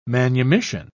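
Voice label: "manumission", English, British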